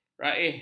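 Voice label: "raih", Malay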